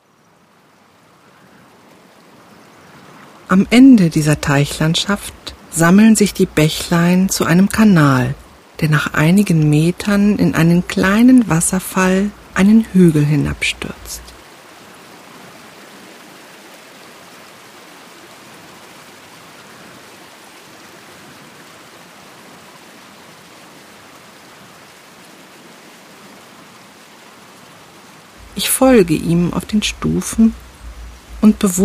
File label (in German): entspannung weihnachten traumhafte winter phantasiereisen, weibliche Stimme; Spaziergang durch den winterlichen Park